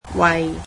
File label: "for", Cantonese